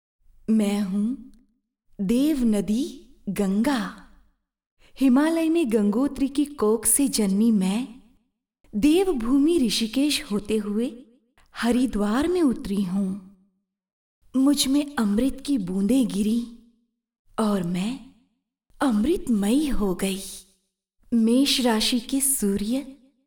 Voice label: Hindi Female